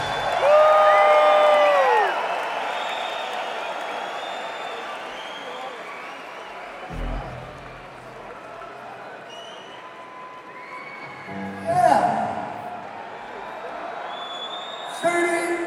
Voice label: Chatter